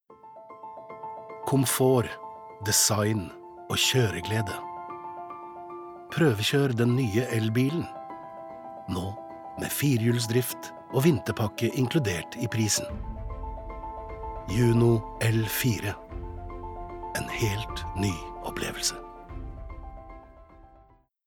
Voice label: no reklame, norwegian, male

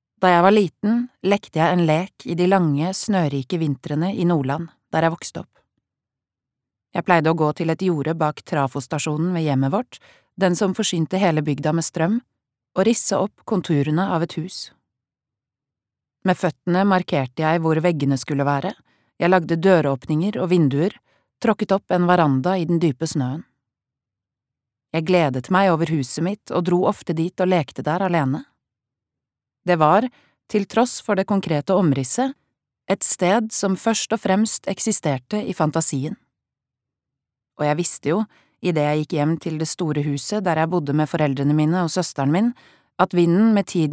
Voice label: Norwegian lydbok, female

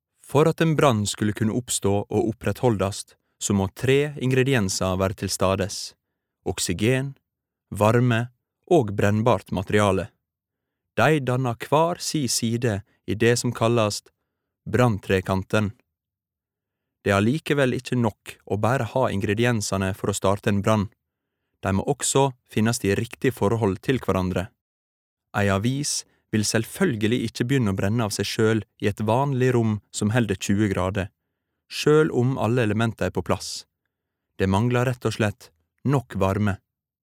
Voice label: no e-laering, norwegian, male

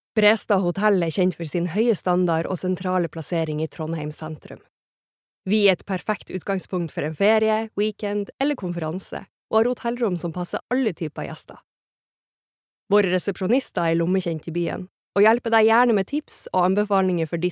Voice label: no informativ, norwegian, female

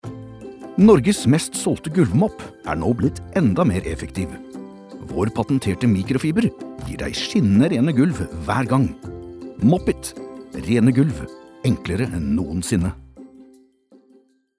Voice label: no reklame

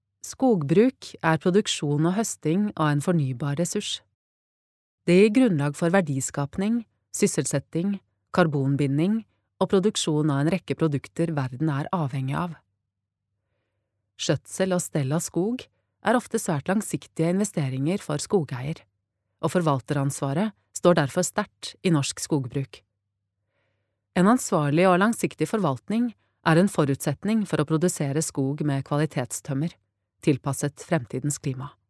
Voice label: informativ, norwegian, female

